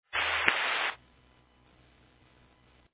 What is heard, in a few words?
Fire Dispatch